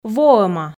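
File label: "воӧма", Komi, female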